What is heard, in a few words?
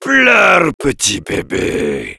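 Heavy taunts, french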